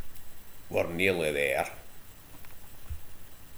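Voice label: "therr", slang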